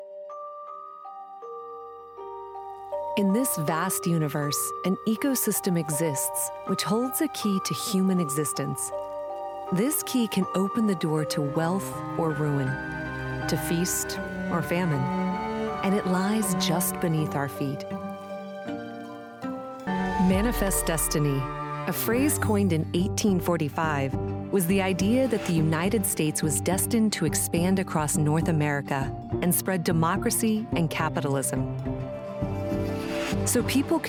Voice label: english american documentaries

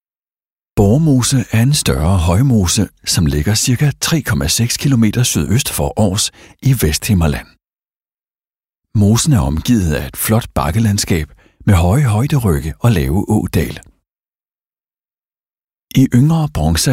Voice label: danish podcasts

